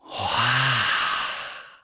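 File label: male wow; People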